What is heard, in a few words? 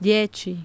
"dieci" Italian